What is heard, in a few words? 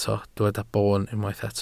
"boon", Welsh